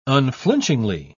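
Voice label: "unflinchingly", English, uk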